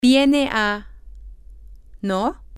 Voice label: you are coming to right, spanish, F

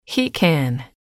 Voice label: he can, English

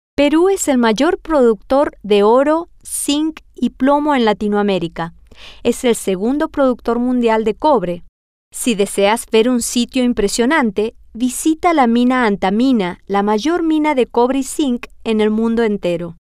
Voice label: Spanish; peru Es El Mayor

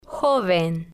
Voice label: "joven", spanish

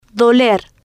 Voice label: "doler", Spanish

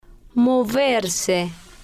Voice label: "moverse", spanish

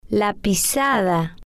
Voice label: "la Pisada", spanish

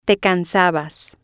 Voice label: "te cansabas", Spanish

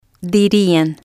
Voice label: "dirian", spanish

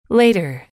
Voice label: "later", English

